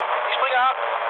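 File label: German bailout mood low, speech; War Thunder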